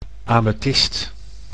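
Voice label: "amethist", Dutch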